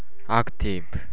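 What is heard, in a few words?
"active", Japanese, male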